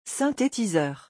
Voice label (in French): "synthétiseur" French; vocabulary; musical instruments